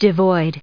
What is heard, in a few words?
"devoid", en us